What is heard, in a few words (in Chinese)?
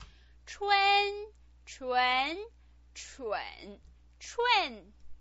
"chun", pinyin